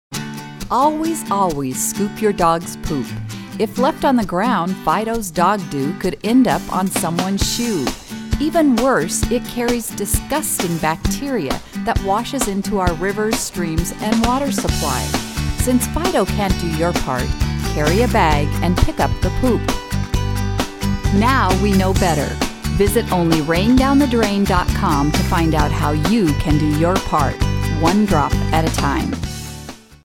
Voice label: Radio; DogPoop